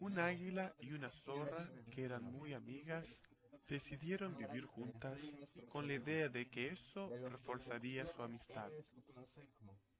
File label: male4 inst sim; SiSEC08; SiSEC underdetermined